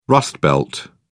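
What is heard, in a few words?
"rustbelt", British English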